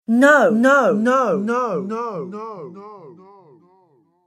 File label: Female Voice Says No With Delay; recorded voice